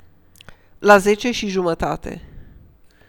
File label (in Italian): treno, rumeno; frasi utili